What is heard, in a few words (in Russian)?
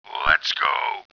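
lets go по рации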